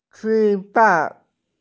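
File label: "eat", Mandarin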